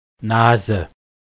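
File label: "nase", German; klettres; tdeedu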